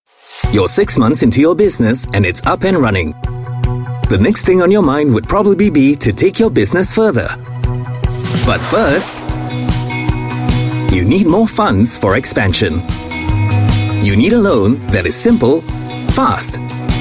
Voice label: English Singaporean Male; OCBC Business First Loan